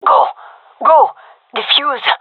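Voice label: cover defuse, female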